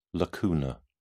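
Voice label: "lacunar", British English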